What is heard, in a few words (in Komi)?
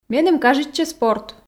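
меным кажитчӧ спорт, Komi, female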